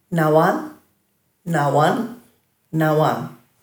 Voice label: "nawan", Dhurga

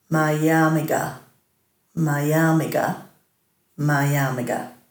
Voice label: "mayarmVga", Dhurga

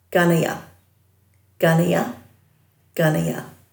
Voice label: "ganaya", Dhurga

